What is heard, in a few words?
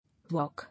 "Walk", English